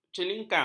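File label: "celingkang", Malay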